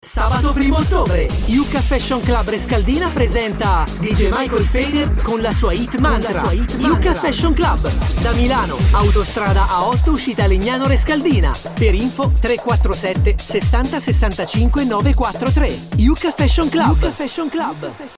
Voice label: italian promos